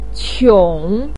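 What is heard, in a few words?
"qiong", Mandarin Chinese syllable, third tone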